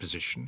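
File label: "position", Standard Southern British English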